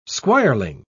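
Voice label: "squireling", British English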